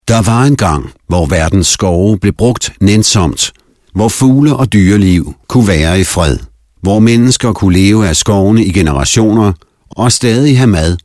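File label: Danish, male, narration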